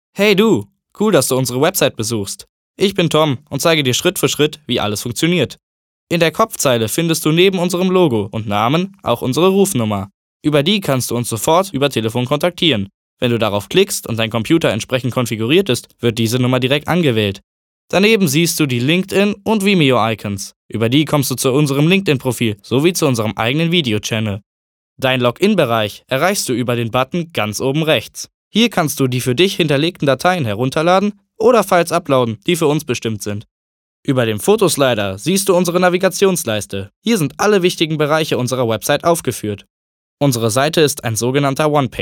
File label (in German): Webseitenintro